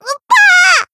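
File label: Voice damaged, Japanese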